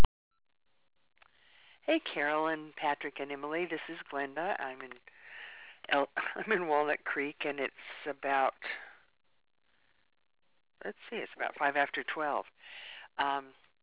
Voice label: voice mail